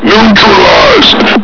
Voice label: "neutralized!", Combine soldier; hl2